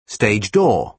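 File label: "stage door", British English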